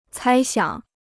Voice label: "猜想", Chinese

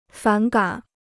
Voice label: "反感", Chinese

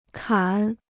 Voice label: "砍", Chinese

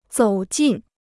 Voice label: "走近", Chinese

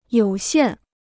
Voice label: "有限", Chinese

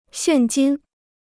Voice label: "现金", Chinese

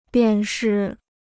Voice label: "便是", Chinese

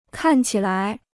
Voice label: "看起来", Chinese

